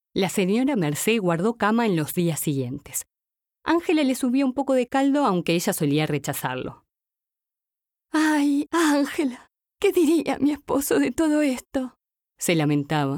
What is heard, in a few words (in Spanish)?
personajes audiolibro